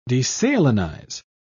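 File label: "desalinize", British English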